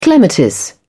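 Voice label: "clematis", British English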